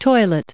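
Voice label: "toilet", English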